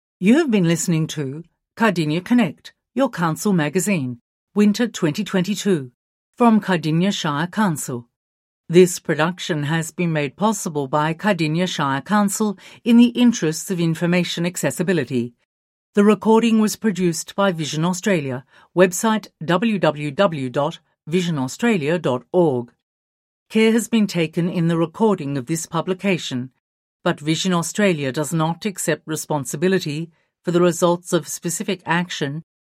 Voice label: closing announcement